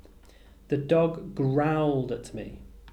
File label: "growled", English